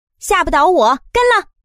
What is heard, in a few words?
Call, woman; goldenflower